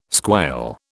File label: "squail", British